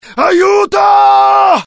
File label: fear, IT; mohaa; dialogue